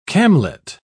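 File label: "camlet", British English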